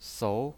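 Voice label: "sou", Mandarin Chinese pinyin, second tone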